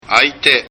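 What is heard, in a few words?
"aite", Japanese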